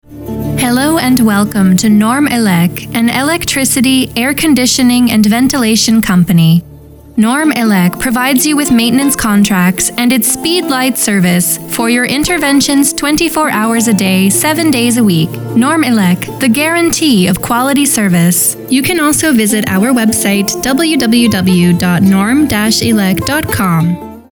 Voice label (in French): ANGLAIS